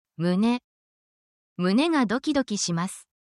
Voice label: japanese body parts